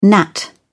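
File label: "gnat", British English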